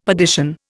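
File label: "perdition", Indian English; Dictionary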